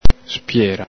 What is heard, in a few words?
"spiera", Veneto